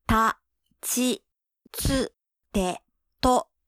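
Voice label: "ta chi tsu te to", Japanese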